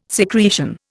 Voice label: "secretion", Indian